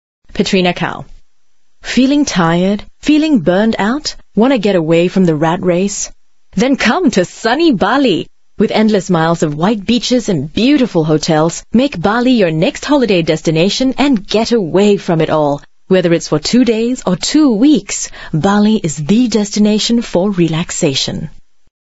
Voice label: Asian English, Singaporean Female